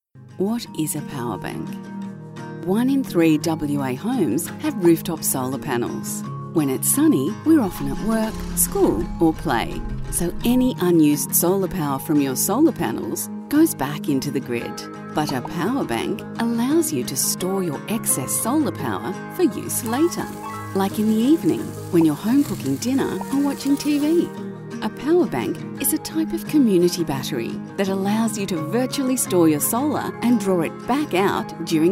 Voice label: explainer; western power